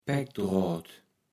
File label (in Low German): "Paeckdroat", Platt